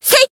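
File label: Voice attack, Japanese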